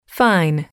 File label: "fine", english